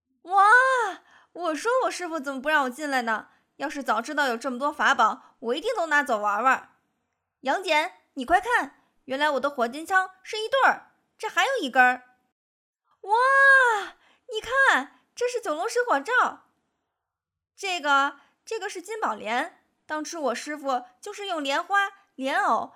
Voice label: 男童音

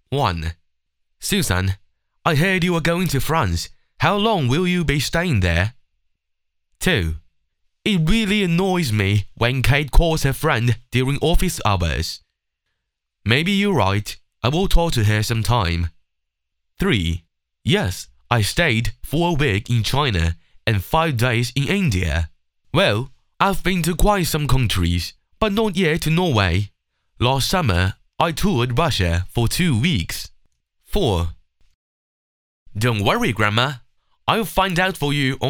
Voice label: 听力样

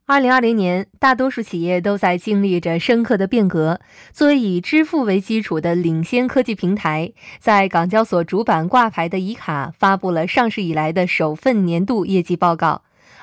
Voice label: 商业短片

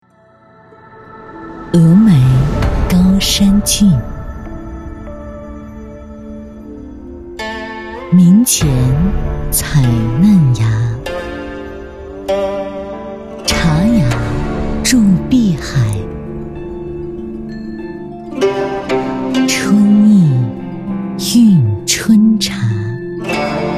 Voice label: 广告类; 竹叶青联合只此青绿

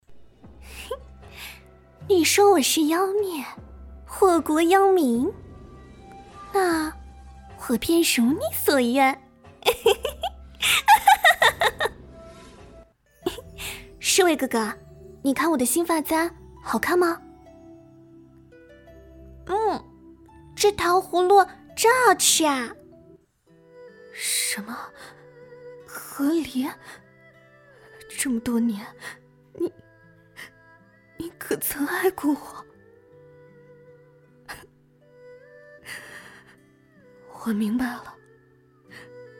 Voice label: 古风萝莉少女少御老妇角色声线

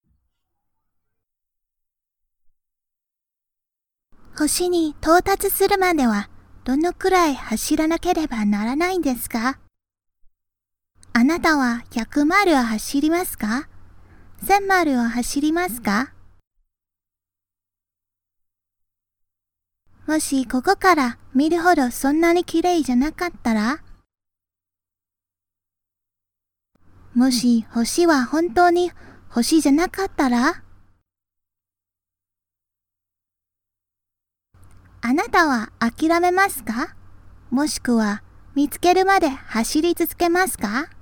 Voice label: 旁述

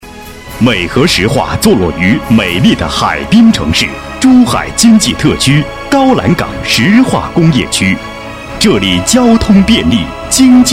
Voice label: 大气浑厚; 美合石化

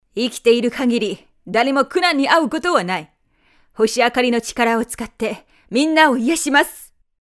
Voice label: 御姐

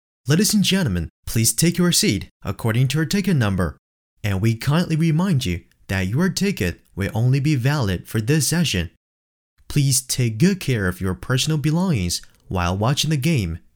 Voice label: 大型赛事现场英文播报

